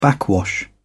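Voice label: "backwash", British English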